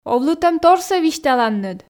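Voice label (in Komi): овлытӧмторсӧ висьталанныд, Komi, female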